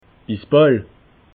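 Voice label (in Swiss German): "bisboll", Alsatian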